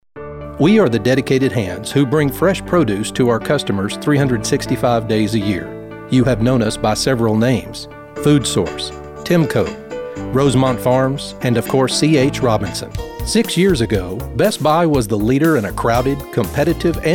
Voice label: narration